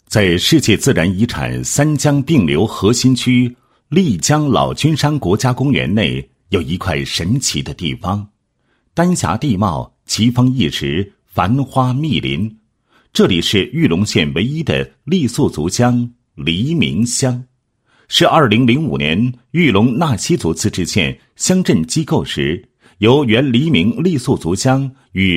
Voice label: 男 工作纪实 脱贫攻坚工作纪实; 黎明乡

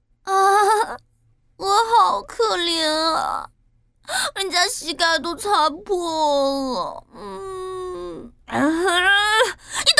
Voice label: 角色 女主; 镇魂街

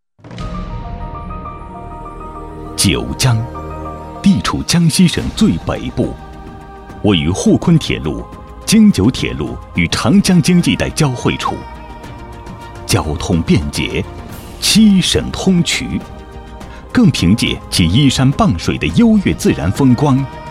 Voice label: 男 专题 高速公路 大气

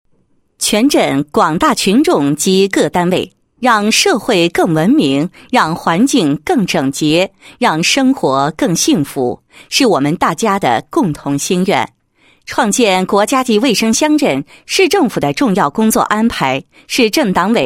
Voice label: 女 倡议书; 政府; 创建国家级卫生乡镇倡议书

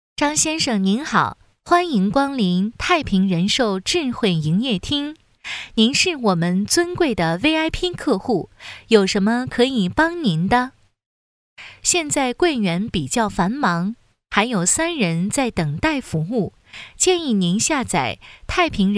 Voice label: 广告 机器人

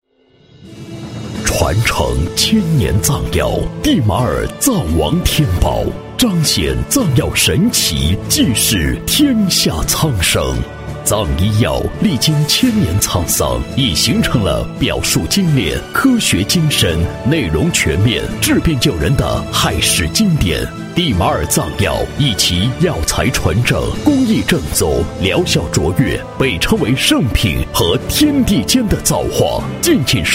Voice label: 男 广告 藏药 浑厚大气, 国语男声配音

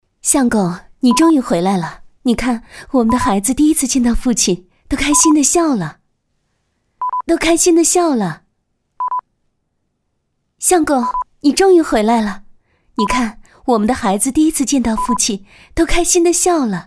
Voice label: 女 角色 御妈音; 相公回来了

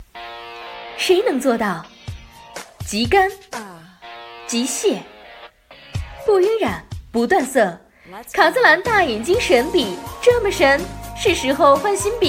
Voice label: 中文广告 眼线笔; 卡姿兰大眼睛

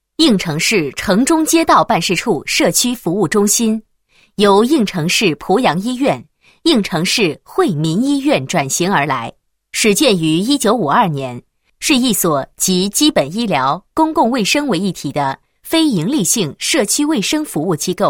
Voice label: 女 医院, 医院宣传介绍; 蒲阳医院

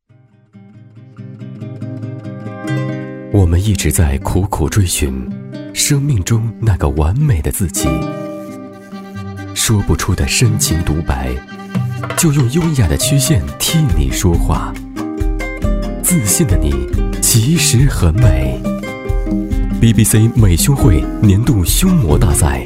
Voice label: 男 广告 优雅年轻; 胸模大赛

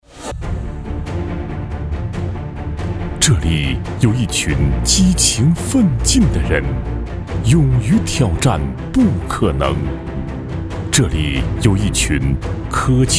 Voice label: 男 专题 企业; 亮果国际

